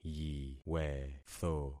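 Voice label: "yiwetho", concatenated syllables; Affixing experiment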